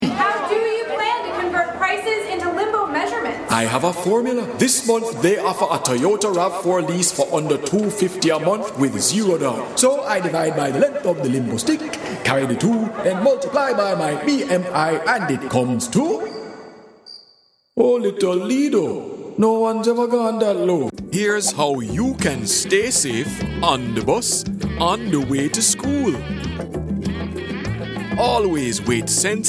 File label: Jamaican Accent; foreign languages